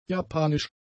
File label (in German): "japanisch", german; adjectives